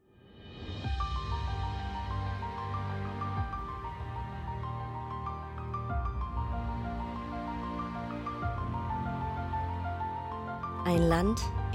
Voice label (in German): mit Musik; Rechtsstaat